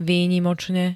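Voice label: "výnimočne", Slovak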